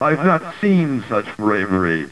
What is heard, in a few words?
brave